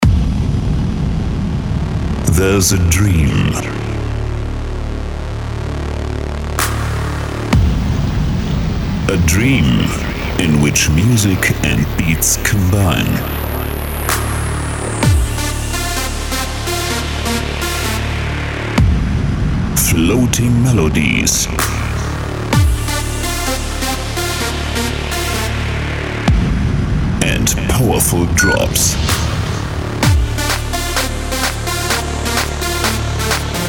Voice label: DJ INTRO mit Beep